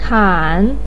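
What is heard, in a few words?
"kan" third tone, chinese, voice pinyin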